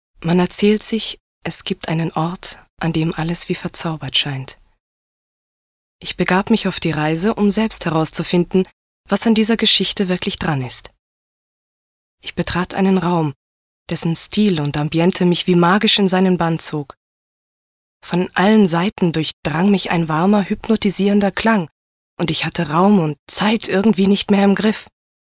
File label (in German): Schauspiel Sanft